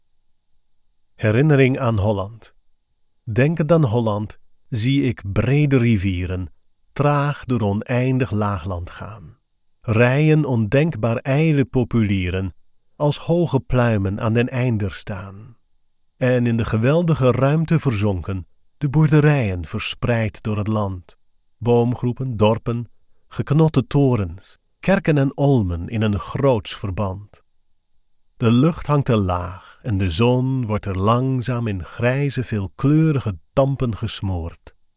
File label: narration